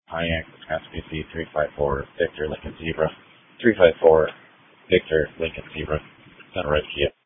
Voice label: Police Dispatch